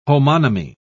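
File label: "homonymy", British English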